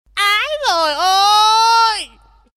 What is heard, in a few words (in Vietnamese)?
Nhac chuong tieng keu ai doi oi